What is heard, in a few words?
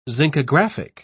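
"zincographic", British English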